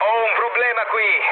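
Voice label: Italian aircraft damaged mood med, speech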